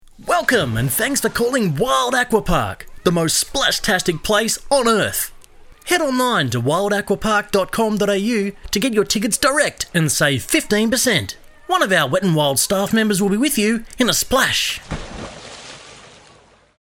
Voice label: Phone Greetings / On Hold / Welcome Message - Splash Park, Natural Male Voice; Wet Wild Aqua Park